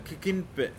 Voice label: "Fingers", Pingelapese